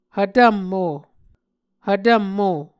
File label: "hvtvm mvo", Muscogee